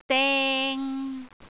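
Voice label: "deng3", Cantonese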